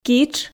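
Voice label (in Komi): "китш", Komi, female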